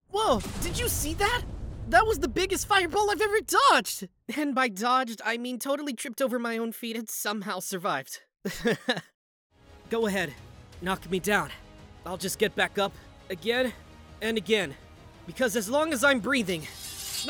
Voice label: Character